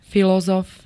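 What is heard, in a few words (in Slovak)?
"filozof", Slovak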